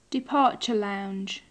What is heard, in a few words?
departure lounge, english